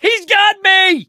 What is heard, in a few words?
charger running with player, survivor voice; source engine; left 4 dead 2